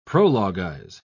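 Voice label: "prologuize", British English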